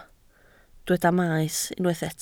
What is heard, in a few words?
"maes", Welsh